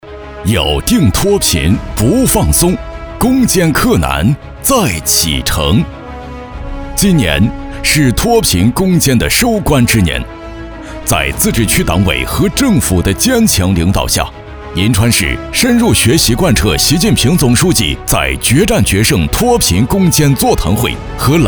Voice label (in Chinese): 男 专题 银川脱贫